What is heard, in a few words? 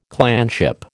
"clanship", American